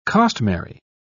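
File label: "costmary", British English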